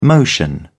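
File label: "motion", British English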